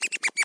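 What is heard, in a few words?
voice delay; FantasyTennis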